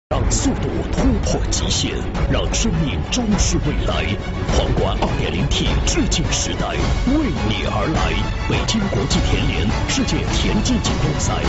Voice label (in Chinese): 男 节目包装 致敬世锦赛, 国语男声配音